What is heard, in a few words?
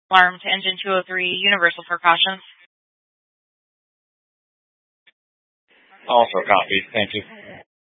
Fire Departments Mesa Simulcast; TOPAZ